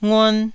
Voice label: "nguan1", taiwanese syllables